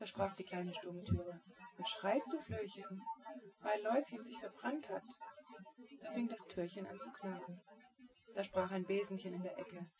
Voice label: female synthconv 130ms 5cm

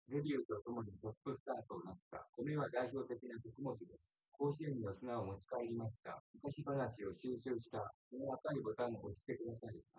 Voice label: male synthconv; SiSEC08; SiSEC underdetermined

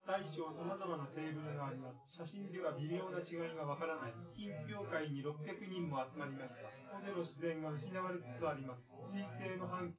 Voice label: male synth conv; SiSEC10; underdetermined

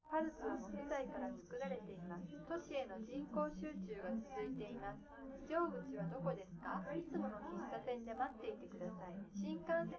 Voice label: female liverec; underdetermined; SiSEC11

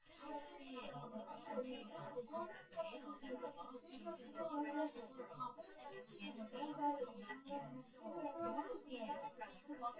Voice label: female synth conv sim; SiSEC10; underdetermined

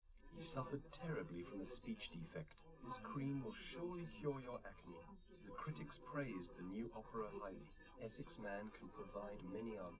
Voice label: male live rec

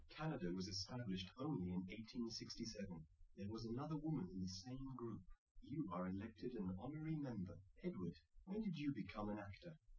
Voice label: male liverec 250ms 1m sim; SiSEC08; SiSEC underdetermined

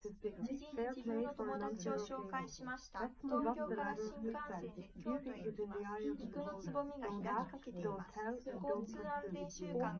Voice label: test female liverec 250ms 5cm sim; SiSEC08; SiSEC underdetermined

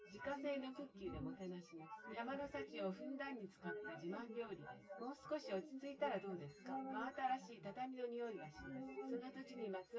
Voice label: female synthconv

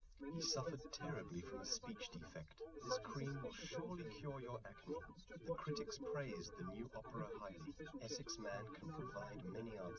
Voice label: male, live rec, 130ms, 1m; SiSEC08; SiSEC underdetermined

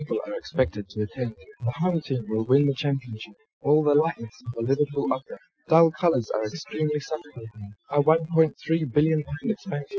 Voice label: male inst src; SiSEC11; underdetermined

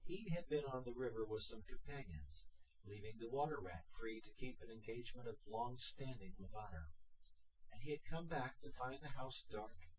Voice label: male synthconv 130ms 1m

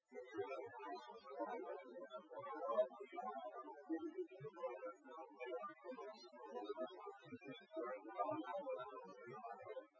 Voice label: male synthconv

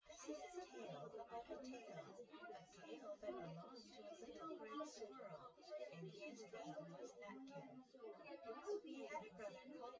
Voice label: female; SiSEC08; SiSEC underdetermined

